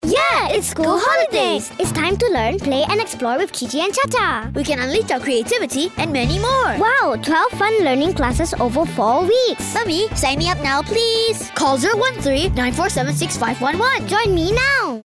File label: ENG; ChiChi ChaCha